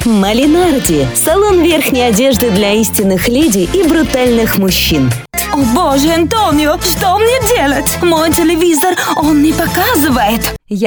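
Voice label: Russian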